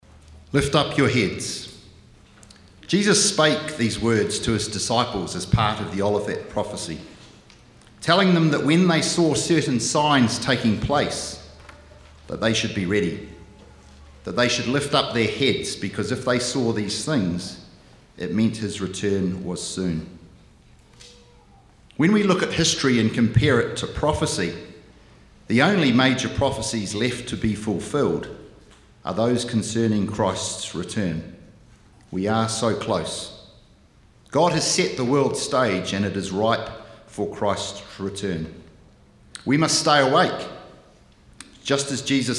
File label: Narration